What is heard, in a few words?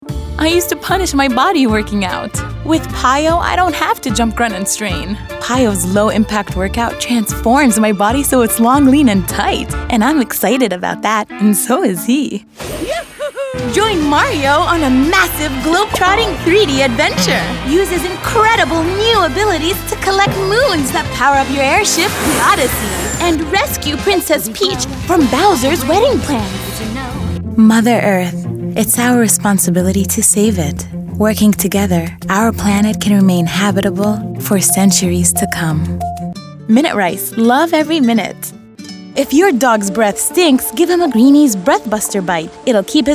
commercial